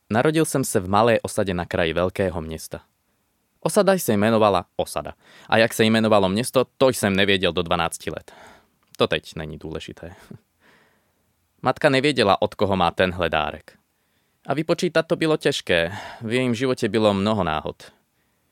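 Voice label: Czech, General; GoLocalise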